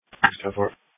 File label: Police Dispatch